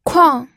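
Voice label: "kuang", fourth tone, pinyin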